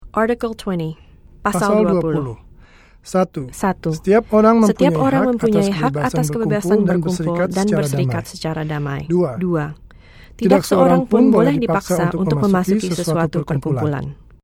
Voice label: Indonesian duo